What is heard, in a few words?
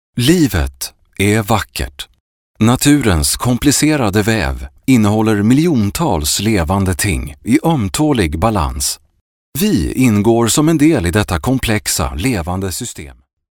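Swedish, male, narration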